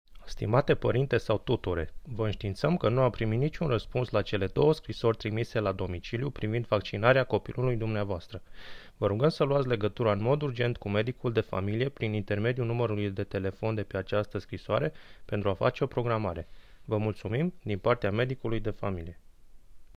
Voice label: Romanian Audio